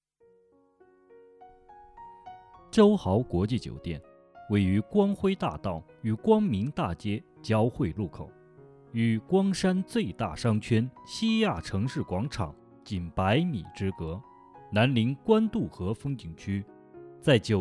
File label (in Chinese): 广告试音